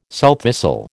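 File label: "sowthistle", American English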